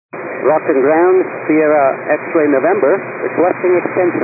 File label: 122 725MHz, scanner radio